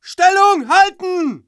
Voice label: ger hold position, german

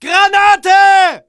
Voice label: ger grenade in, german

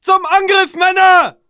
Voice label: ger move out, german

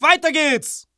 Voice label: ger go go go, german

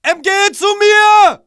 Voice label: ger move up mg, german

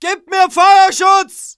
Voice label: ger covering fire, german

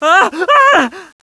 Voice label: scared

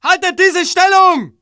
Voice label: ger hold position, german

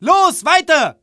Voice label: ger go go go, german

